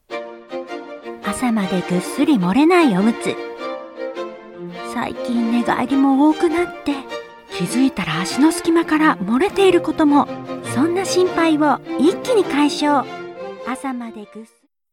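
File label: F, Japanese, female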